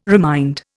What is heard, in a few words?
"remind", Indian